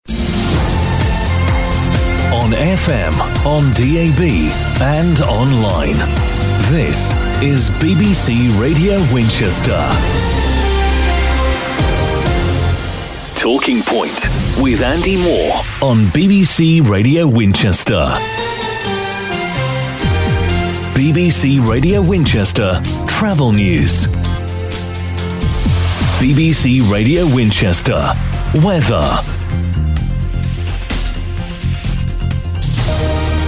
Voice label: station imaging; BBC local radio